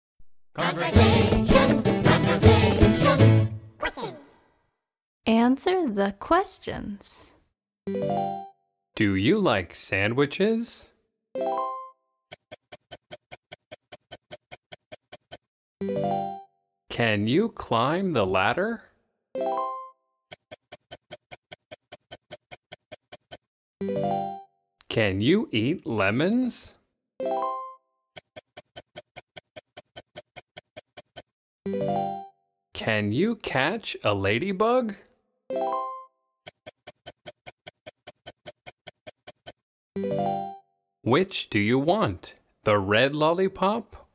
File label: conversation natural; ABC Adventure Stories